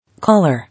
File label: "Collar", English